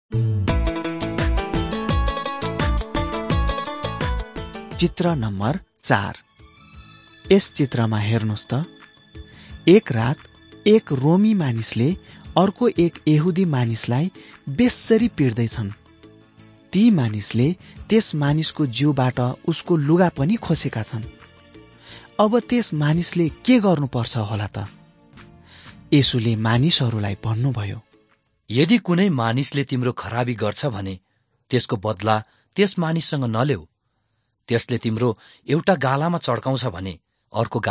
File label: jesus teacher and healer nepali